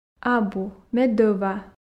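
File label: "абу ме дӧва", Komi, female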